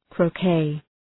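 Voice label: "croquet", English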